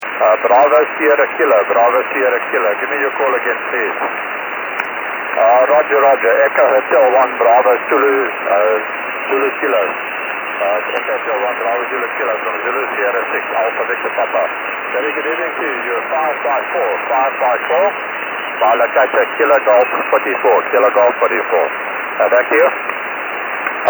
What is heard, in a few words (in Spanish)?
hablando conmigo desde Sout Africa